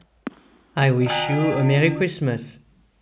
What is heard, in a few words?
noisy voice long; Speech-enhancement